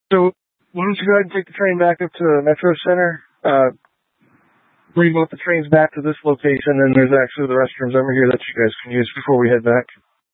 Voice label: Light Rail Emergency, scanner

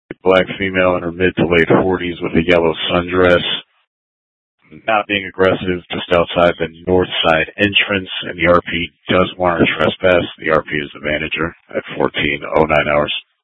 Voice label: PD Dispatch

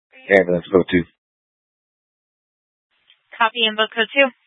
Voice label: Fire Departments Mesa Simulcast; TOPAZ

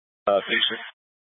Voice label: Fire Departments, scanner

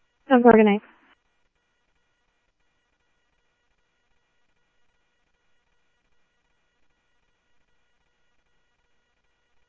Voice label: Scottsdale Police, scanner audio; SCTSDLE PD - PD1; H-Scottsdale

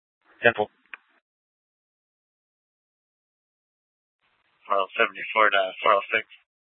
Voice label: Police South Dispatch

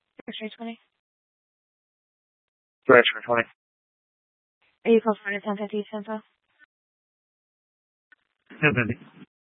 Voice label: Tempe PD, scanner; Rgnl Wireless Co-op (RWC)

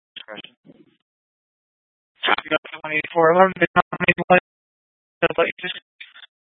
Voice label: Phx PD Fire Dispatch

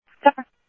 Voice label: Scottsdale Police, scanner audio

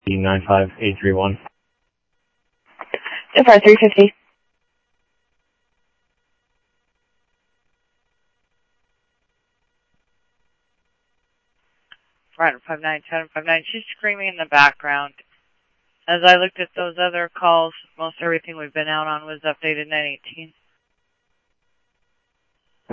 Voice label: Sheriff-WestCnty, scanner audio

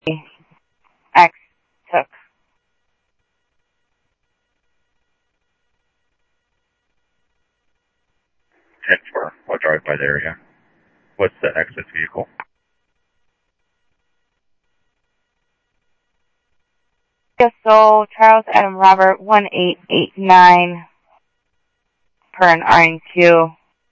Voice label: Scottsdale PD Scottsdale Police, scanner audio; ThompsonPeakHigh; SCTSDLE PD - PD2

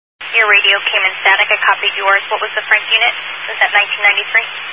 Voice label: Highway Patrol, Phoenix Metro West Area, scanner

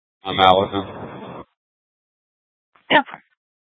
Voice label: Sheriff, scanner P25; Maricopa County (P25) - Thompson Peak; Shrf Lakes

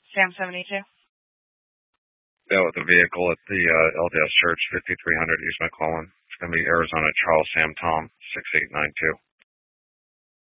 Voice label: Dispatch, scanner; TOPAZ Rgnl Wireless Co-op (TRWC); Mesa PD Dpt; 4 Sprstn Dispatch

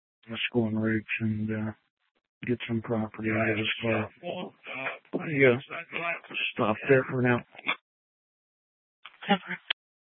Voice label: Sheriff, scanner

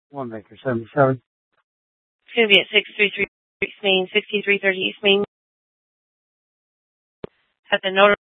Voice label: Superstition Dispatch, Mesa PD

